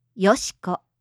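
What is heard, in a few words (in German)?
"Yoshiko", japanische Mädchennamen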